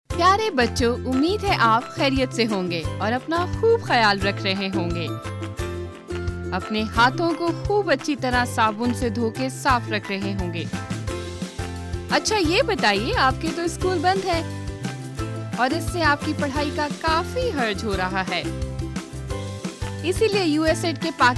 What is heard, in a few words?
Urdu Story; USAID-funded Pakistan Reading Project developed a weekly education radio series in Urdu; Ao Mahol Ko Saaf Karain